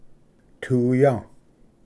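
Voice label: "tuya", Cherokee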